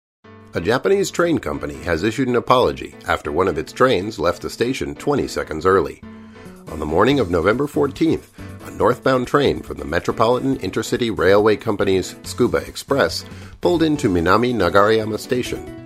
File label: news